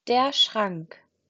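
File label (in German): "Schrank", German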